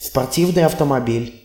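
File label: sportscar desc, Russian; vehicles; auto; tuxpaint-stamps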